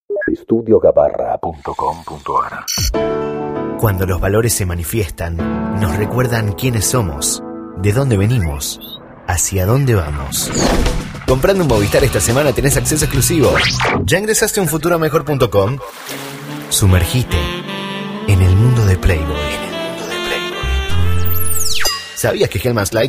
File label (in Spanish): Voces Masculinas